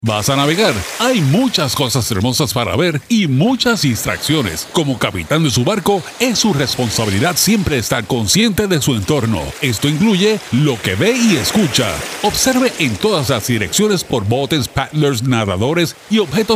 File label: distracted boating radio safe boating campaign